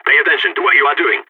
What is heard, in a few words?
friendly fire, balkan